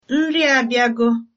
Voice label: bon appetit, igbo